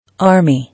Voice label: "Army", English